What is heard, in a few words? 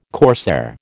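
"corsair", American English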